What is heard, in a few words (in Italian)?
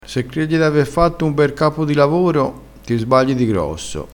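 "capo di lavoro", dialettale crespinese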